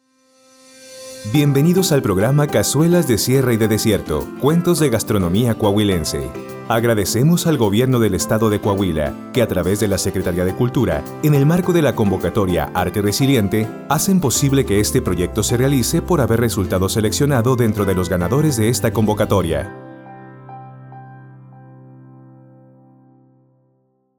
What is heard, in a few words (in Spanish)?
LOC EN VIVO INTRO; CAZUELAS DE SIERRA